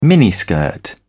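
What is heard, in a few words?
"miniskirt", British English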